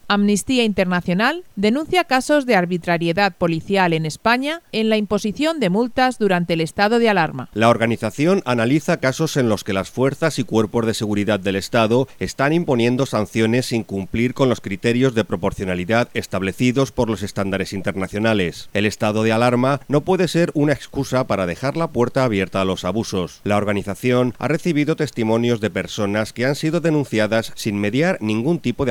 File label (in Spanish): informativo; espana arbitrariedad policial estado alarma